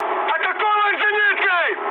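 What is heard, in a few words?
Russian damaged aa mood high, speech; War Thunder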